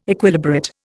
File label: "Equilibrate", Indian